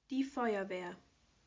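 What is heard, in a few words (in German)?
"Feuerwehr", German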